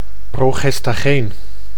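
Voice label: "progestageen", Dutch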